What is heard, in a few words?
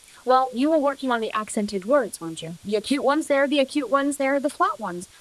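voice acting, es, female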